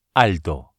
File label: "alto", Spanish